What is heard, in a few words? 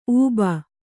"ಊಬು", Kannada